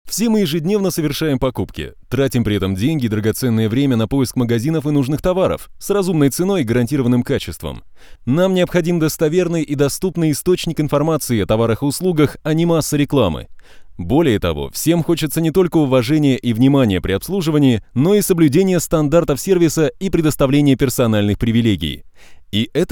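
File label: middle, Russian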